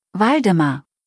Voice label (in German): "Waldemar", deutsch jungennamen